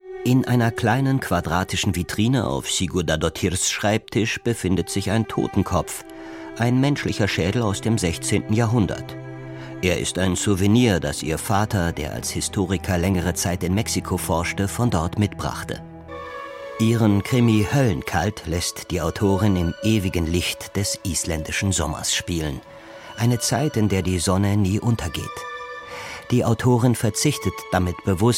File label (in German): Kommentar